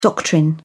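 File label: "doctrine", British English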